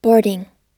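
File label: "boarding", US English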